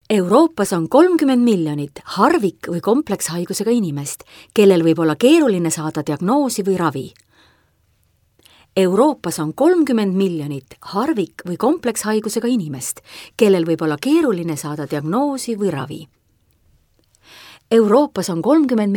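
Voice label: Estonian, female, corporate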